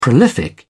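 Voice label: "prolific", British English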